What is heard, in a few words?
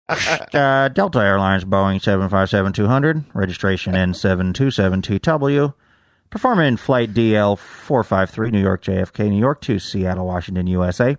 Boeing 757 200 pilot over PA; delta airlines